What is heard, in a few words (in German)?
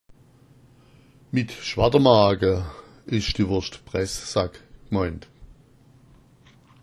"schwartermaga", schwaebisch